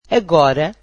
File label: "now", Portuguese